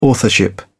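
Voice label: "authorship", British English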